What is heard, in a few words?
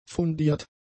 "fundiert", German; adjectives